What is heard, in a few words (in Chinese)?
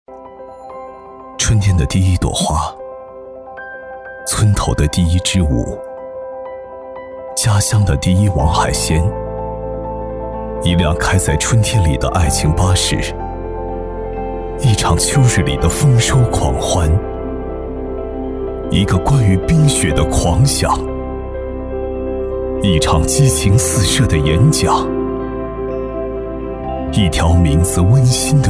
男 旁白走心 舒缓, 厚重有力 舒缓抒情; 春天的第一朵花